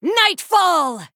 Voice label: Female Night Elf Attack